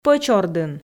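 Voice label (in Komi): пӧч ордын, female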